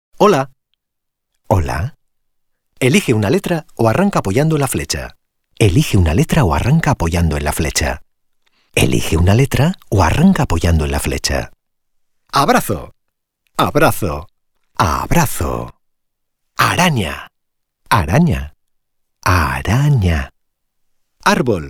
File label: for Childrens App